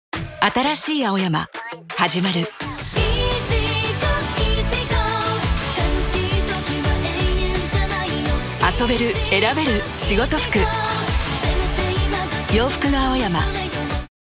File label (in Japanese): お洒落、カッコイイ、爽やか; cm; 洋服の青山